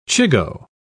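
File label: "chigoe", British English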